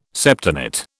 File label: "septenate", British